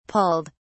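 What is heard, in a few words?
"pulled", British English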